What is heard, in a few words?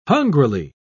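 "hungrily", English, British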